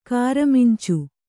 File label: "ಕಾರಮಿಂಚು", Kannada; kāramincu